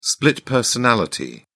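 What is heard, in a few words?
"split personality", British English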